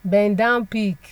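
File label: "bend down pick", Nigerian Pidgin, female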